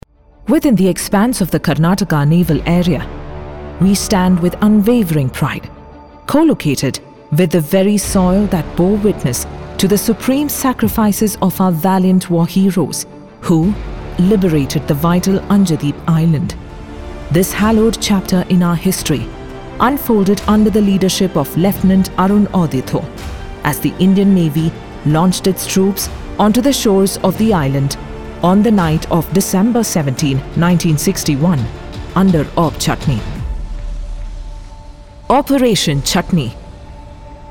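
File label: English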